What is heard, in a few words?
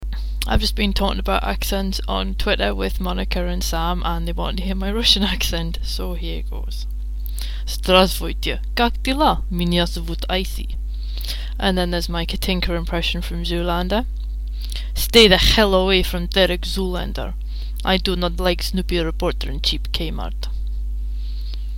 accent sample